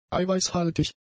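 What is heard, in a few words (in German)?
"eiweißhaltig", german; adjectives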